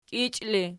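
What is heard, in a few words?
"kiichi" ergative, Archi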